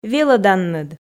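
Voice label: "велӧданныд", Komi, female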